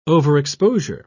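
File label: "overexposure", British English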